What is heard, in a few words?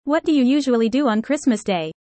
fast